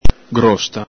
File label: "grósta", Venetian